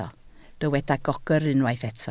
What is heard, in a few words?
"gogr", Welsh